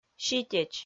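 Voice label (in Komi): "ситеч", Komi, female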